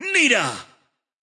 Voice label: intense; Nita